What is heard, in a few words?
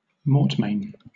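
"mortmain", British English; pronunciations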